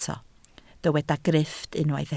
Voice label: "grifft", Welsh